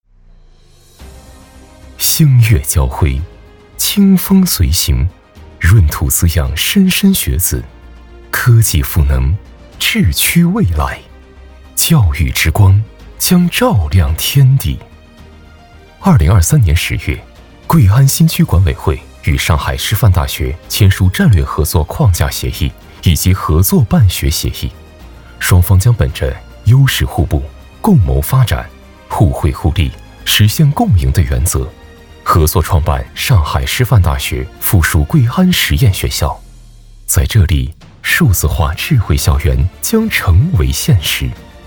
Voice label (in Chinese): 专题年轻大气